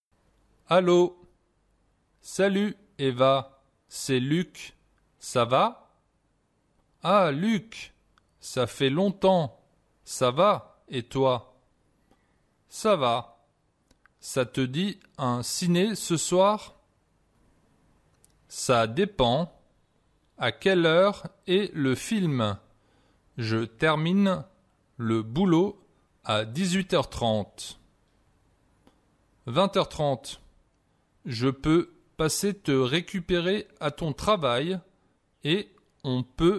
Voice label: Conversation, French